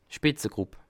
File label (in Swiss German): "spitzegrupp", alsace